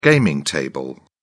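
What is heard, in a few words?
"gaming table", English, British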